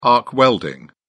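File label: "arc welding", British English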